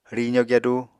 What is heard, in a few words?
"rizo gyadu", Apatani